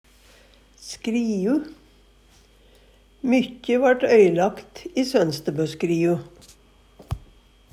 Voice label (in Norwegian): skriu, Numedalsmål; Substantiv hokjønn